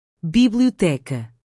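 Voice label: "biblioteca", Portuguese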